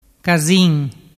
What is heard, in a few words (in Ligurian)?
"casin", dialettu